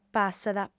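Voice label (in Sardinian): "passat", female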